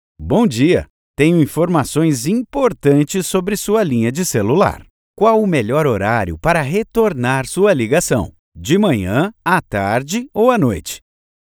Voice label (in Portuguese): URA locutor